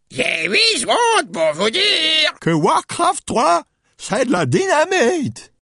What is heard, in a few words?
Mortar Team Pissed; Warcraft 3